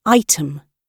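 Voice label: "item" British English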